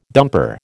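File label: "dumper", American English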